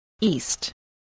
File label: "east", English